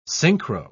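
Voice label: "synchro-", English, British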